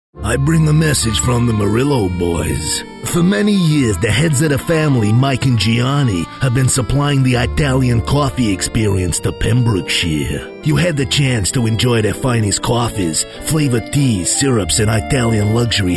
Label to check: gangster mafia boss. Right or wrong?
Right